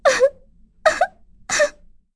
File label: Sad; en; Yuria; Kings Raid